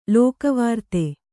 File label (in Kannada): "ಲೋಕವಾರ್ತೆ", kannada